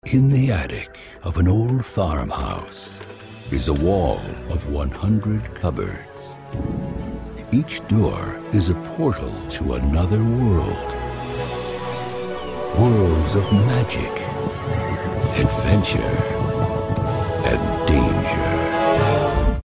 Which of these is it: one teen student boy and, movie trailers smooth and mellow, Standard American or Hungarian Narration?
movie trailers smooth and mellow